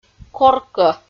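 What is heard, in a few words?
"коркӧ", Komi, female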